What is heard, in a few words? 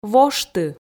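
"вошты", Komi, female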